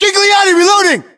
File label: US inform reloading, battlechatter, english